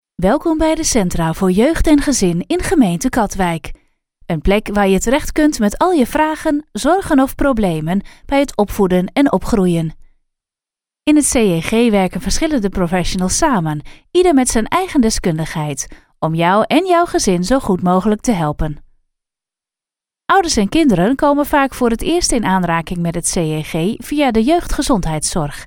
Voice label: dutch corporate videos